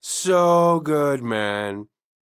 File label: icecream reaction; donnie; Blind Drive